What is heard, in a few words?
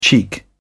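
"cheek", British English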